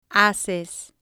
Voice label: "haces", spanish

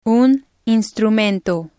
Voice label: "un instrumento", Spanish

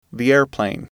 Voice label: airplane, englishAudio

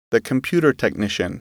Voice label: "computer technician", english

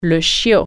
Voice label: "puppy", french

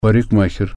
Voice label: barber, russian

